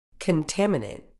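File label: "contaminant", British English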